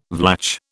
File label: "Vlach", British